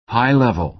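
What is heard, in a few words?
"high-level", American English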